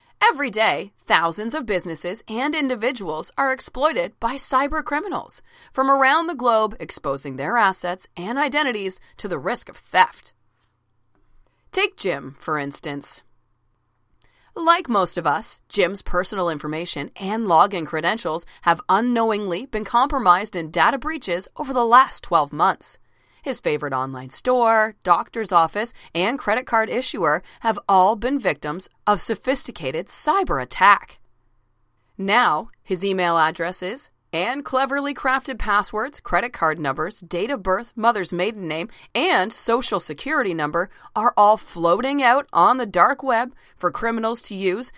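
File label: English USA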